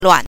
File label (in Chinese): "luan", pinyin